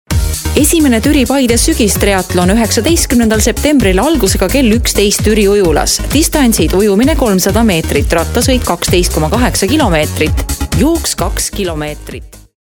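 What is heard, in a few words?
estonian female commercial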